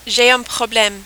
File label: "j'ai un probleme", French